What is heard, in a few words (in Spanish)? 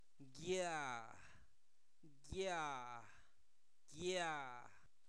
flor, Zapoteco, santo domingo albarradas; objetos inanimados